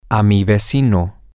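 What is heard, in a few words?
Spanish, male; neighbor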